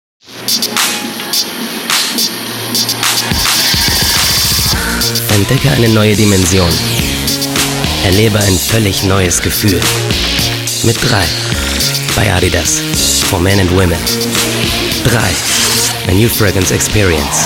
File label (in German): Radiospot, adverts; Adidas